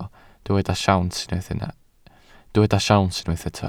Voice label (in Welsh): "siawns", Welsh